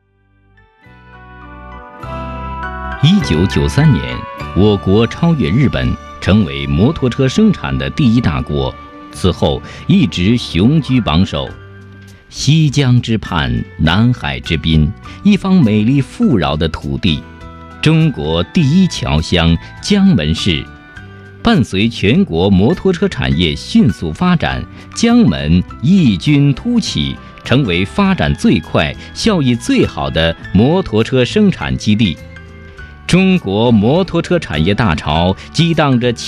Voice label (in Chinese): 男 专题 政府企业类, 国语男声配音